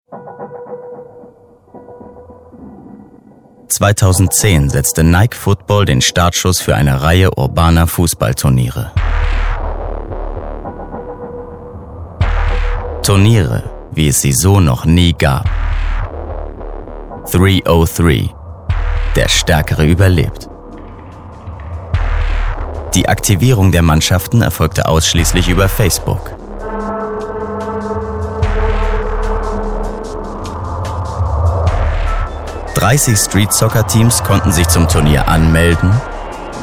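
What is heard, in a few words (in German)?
kommentar, sprecher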